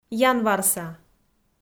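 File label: "январса", Komi-Zyrian, female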